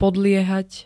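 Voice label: "podliehať", Slovak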